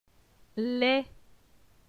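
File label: "Les", French; phonetique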